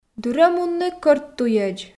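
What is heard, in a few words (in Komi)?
"дыр-ӧ мунны кӧрт туйӧдз", Komi, female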